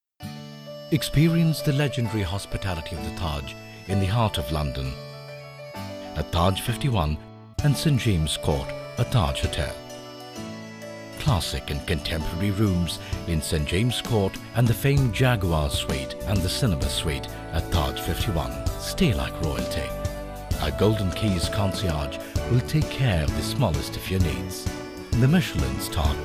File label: Commercial